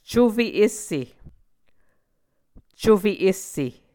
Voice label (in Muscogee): "cufe esse", Muscogee